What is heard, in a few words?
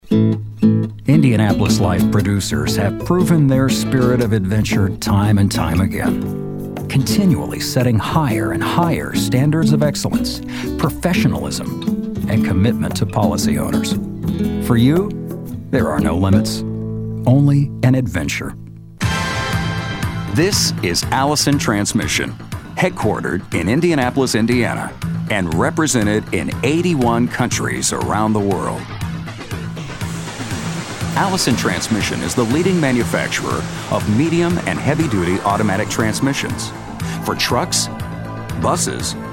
industrial vo